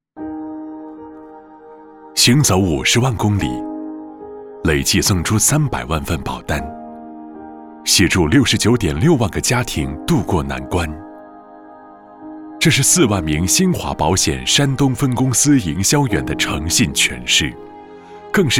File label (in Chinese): 宣传片, 企业, 温情; 新华保险